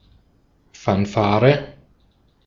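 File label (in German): "Fanfare", German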